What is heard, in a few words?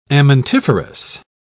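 "amentiferous", English, British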